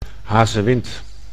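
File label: "hazewind", Dutch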